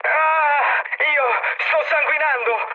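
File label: Italian damaged pilot mood low, speech; War Thunder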